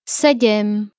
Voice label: Slovak